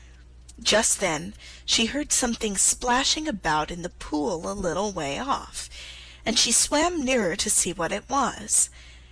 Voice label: alice in wonderland slow